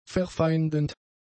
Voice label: "verfeindend", German; adjectives